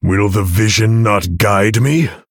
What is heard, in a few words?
Male Human Thinking